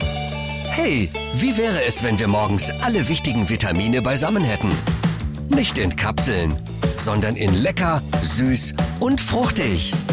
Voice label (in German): Werbung jung frisch